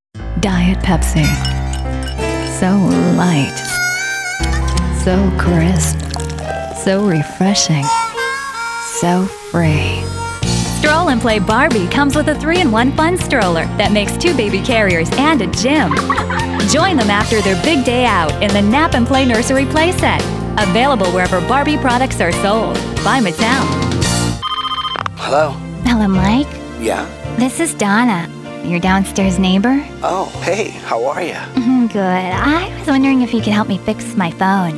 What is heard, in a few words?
commercial